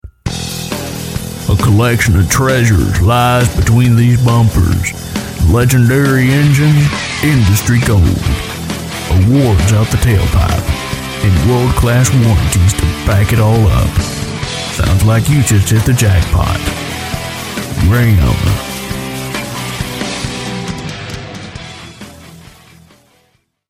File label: Commercial; Dodge Ram